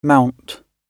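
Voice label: "mount", British English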